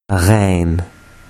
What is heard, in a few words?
"gain", Urdu